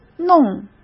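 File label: "弄", Mandarin Chinese; chinese-flashcards; hsk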